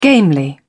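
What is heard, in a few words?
"gamely", English, British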